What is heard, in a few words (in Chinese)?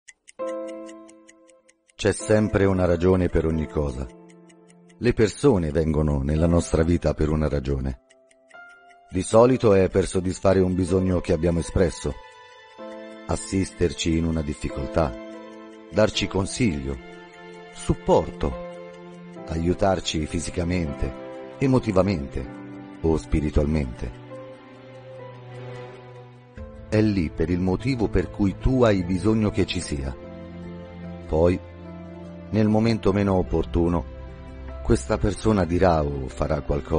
意大利语男 外语 小语种 沉稳